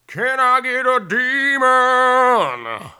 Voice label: Preacher Win; blackjack